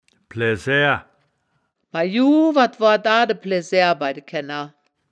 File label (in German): "plaesaer", wittlich